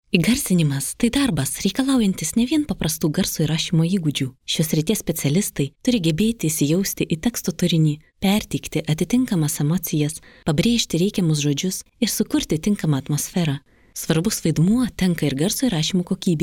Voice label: female lithuanian voice over narrative